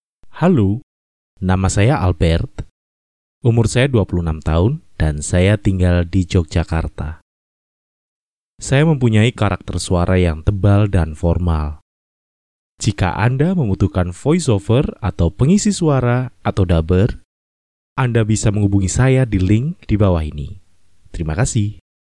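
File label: Voiceover Indonesia, Deep & Wholesome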